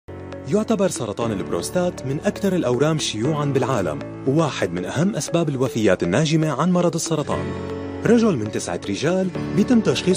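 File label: Arabic, male, Medical, voice over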